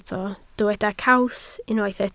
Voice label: "caws", Welsh